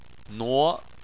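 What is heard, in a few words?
"nuo" second tone, Chinese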